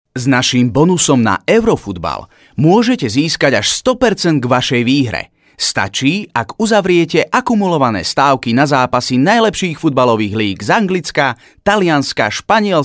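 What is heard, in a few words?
Slovak, male, explainer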